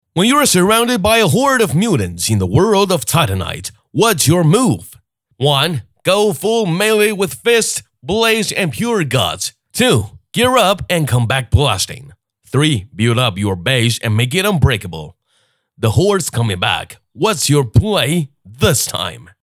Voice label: 男 英文 信息流

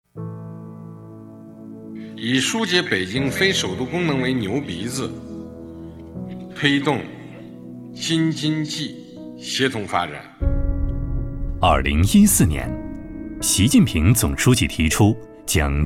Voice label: 男 专题 教育发展汇报

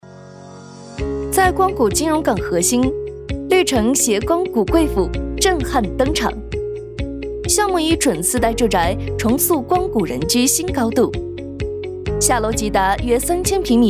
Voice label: 女 地产类

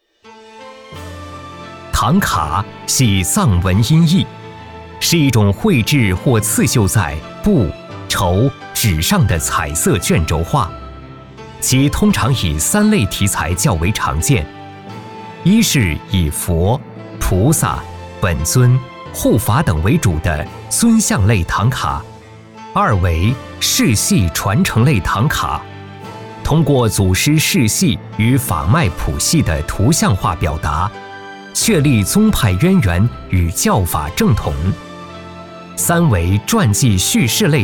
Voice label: 男 博物馆解说类

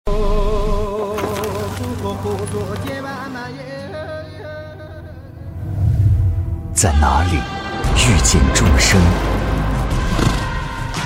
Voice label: 男 广告; 藏韵; 国窖1573

